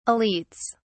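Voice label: "elites", British English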